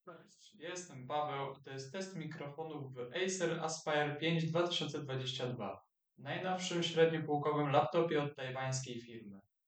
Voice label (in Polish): test mikrofonow acer aspire 5